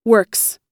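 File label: "works", US English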